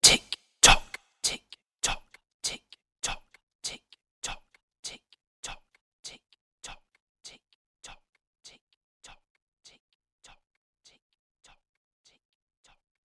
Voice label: Tick Tock Spoken, The phrase ‘Tick-Tock’ whsipered in male voice with repeated delay.; Whispering